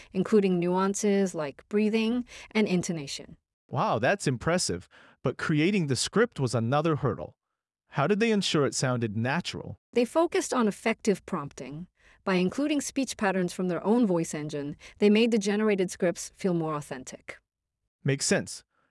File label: synthesized audio, podcast; Building PodcastLM: An inside story; CLOVA; NAVER